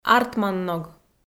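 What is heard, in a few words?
"артманног", Komi, female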